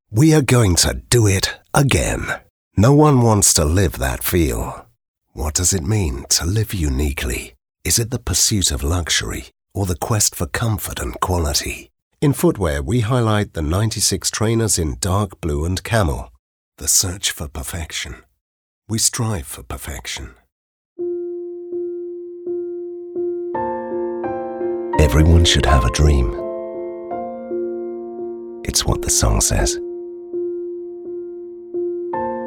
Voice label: english male